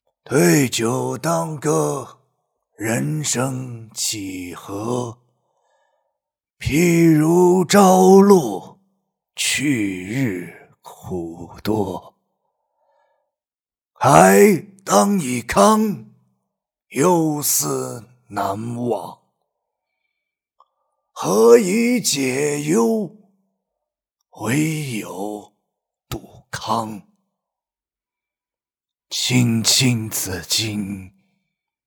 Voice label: 老年音

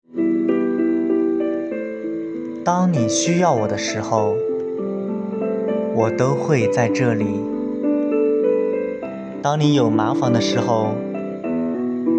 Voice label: 中英文朗读; 当你需要我的时候

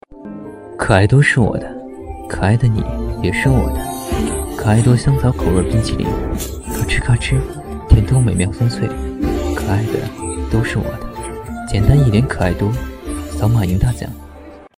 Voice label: 青年公子音

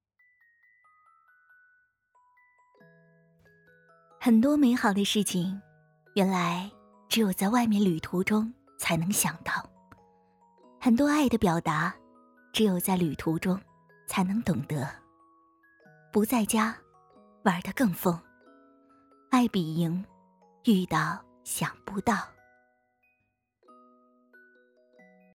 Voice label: 广告

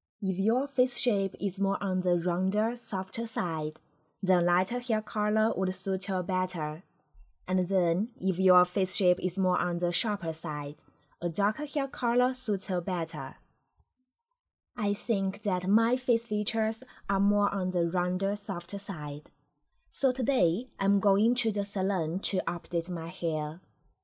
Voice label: 美式母语

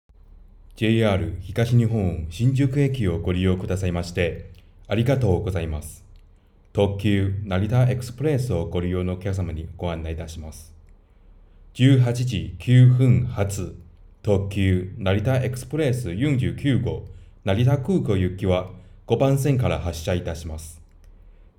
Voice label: 新宿车站站内广播日语

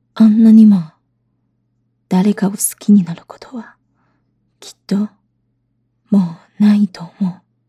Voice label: 日语情感

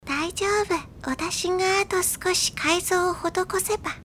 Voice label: 萝莉

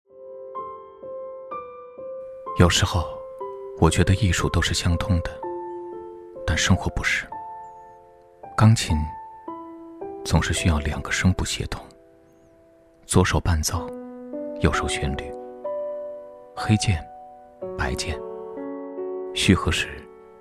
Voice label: 讲述感; 生命总是想通的

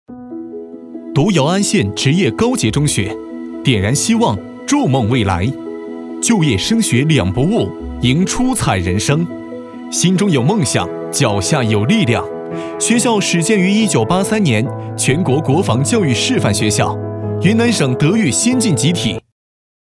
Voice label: 年轻学校宣传片

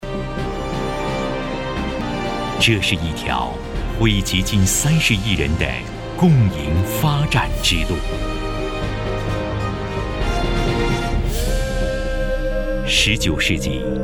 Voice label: CCTV纪录片片花

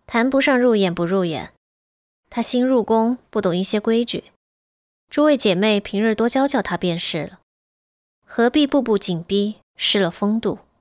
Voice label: 高冷御姐音古风

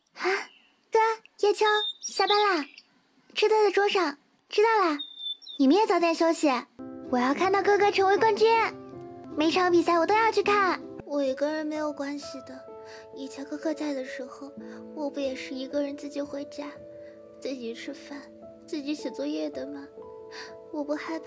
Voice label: 角色类萝莉音

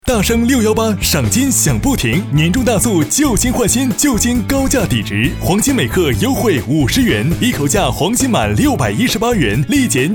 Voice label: 时尚促销

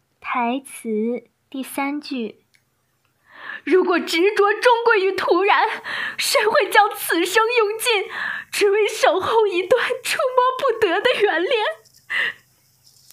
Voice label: 情感 爆发

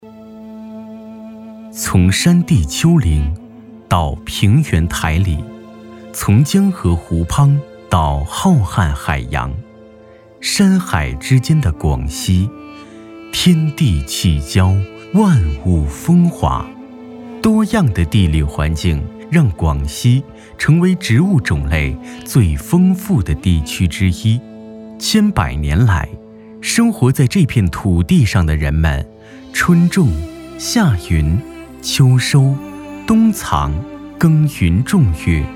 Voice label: 叙述类

